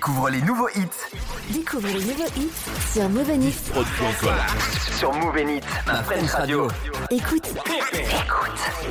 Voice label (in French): Jingles Coup de coeur x5; Moov N Hits